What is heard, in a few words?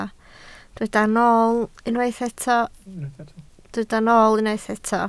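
"nool" Welsh, spoken word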